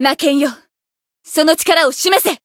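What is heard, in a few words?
Voice chant, Japanese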